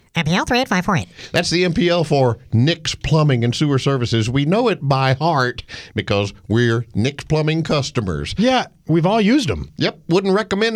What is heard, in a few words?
RADIO AD; nicks plumbing radio ads